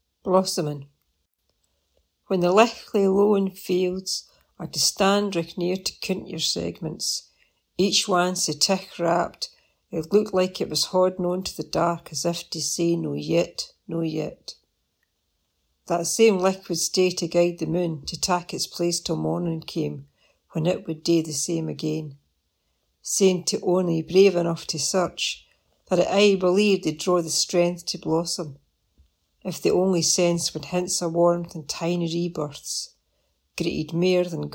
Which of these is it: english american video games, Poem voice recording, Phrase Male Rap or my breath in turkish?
Poem voice recording